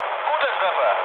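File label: German bomb success mood low, speech; War Thunder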